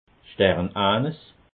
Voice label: "sternanes", alsace

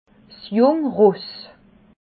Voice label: "jungsross", alsace

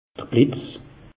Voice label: "blitz", alsace; meteo

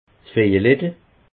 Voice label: "vejelettel", alsace

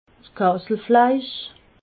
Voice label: "kesselfleisch", alsace

